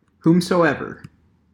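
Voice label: "whomsoever", American English; pronunciations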